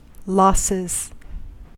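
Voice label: "losses", US English; pronunciations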